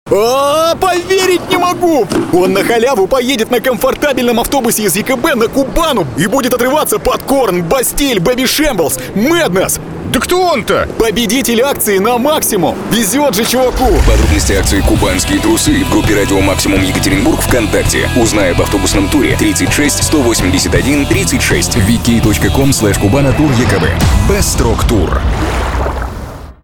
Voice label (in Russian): Promo-rolik, Radio; Akciya Kubanskie Trusy; Radio Maksimum